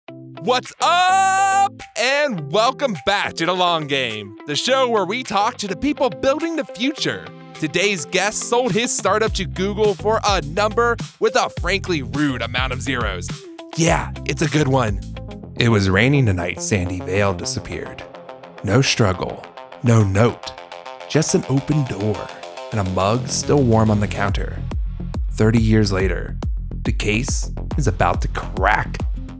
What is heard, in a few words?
podcast